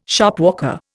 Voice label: "Shopwalker", Indian